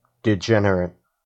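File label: "degenerate", US English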